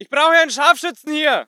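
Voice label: voice german infantry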